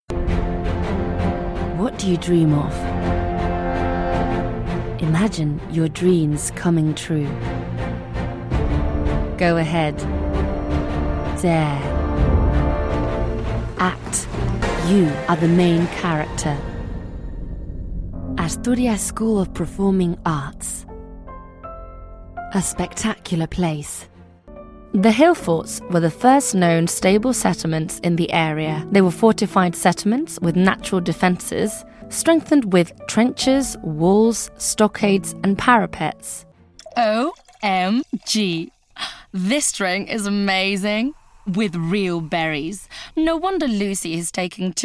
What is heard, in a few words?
English Reel